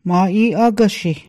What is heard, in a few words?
blackboard, Hidatsa